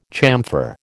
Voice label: "chamfer", American

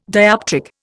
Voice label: "dioptric", Indian

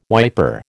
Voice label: "wiper", American

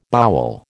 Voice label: "bowel", American

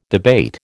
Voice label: "debate", American

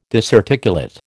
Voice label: "disarticulate", American

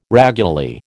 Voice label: "raguly", American

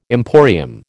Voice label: "emporium", American

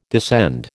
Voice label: "descend", American

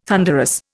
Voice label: "thunderous", Indian

